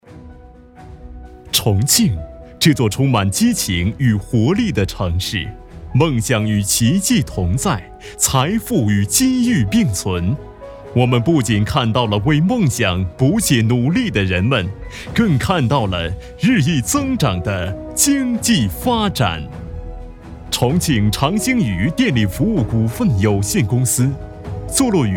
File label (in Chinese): 男 城市专题 介绍; 重庆